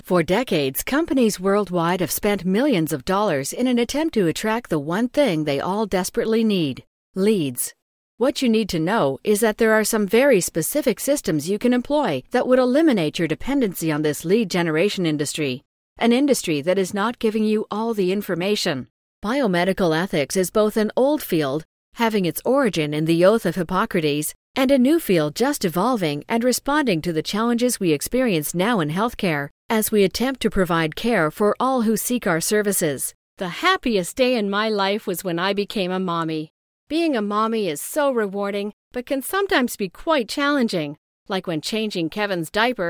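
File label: US English General; GoLocalise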